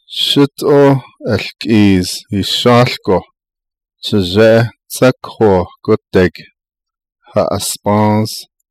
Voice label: navajo; My father drove a wagon up out of the canyon wi